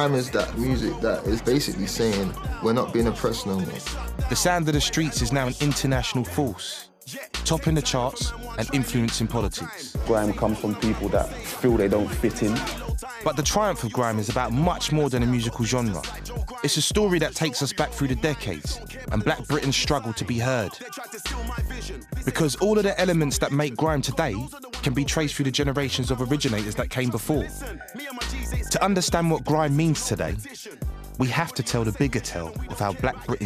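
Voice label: Narration, Male. London.; 20s-30s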